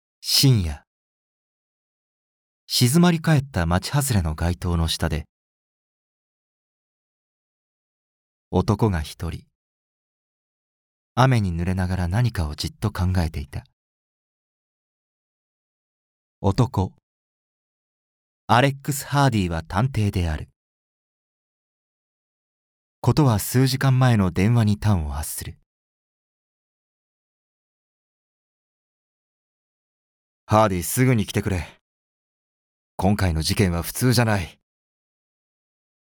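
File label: narration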